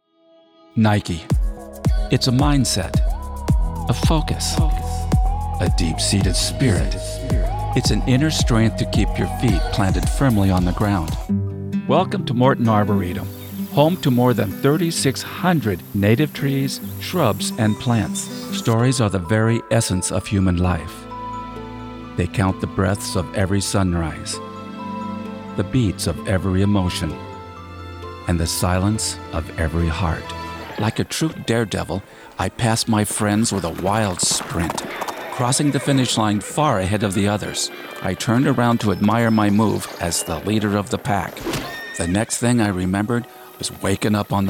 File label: Voice Over Narration